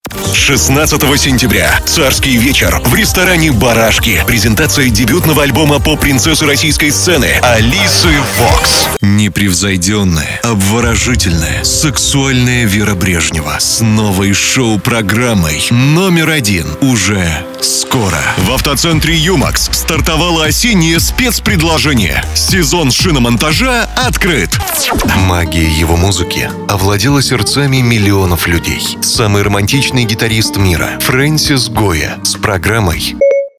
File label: russian commercial